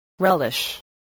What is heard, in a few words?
"relish", spelling voice